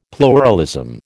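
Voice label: "pluralism", American English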